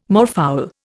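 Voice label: "moorfowl", Indian English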